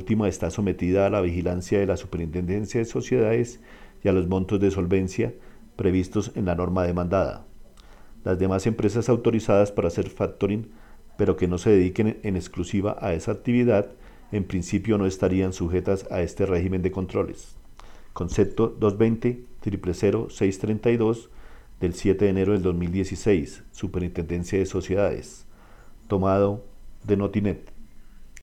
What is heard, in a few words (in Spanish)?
comercial